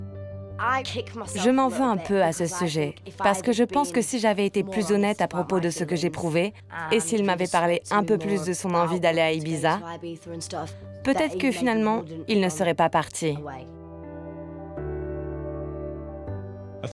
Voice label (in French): voice over documentaire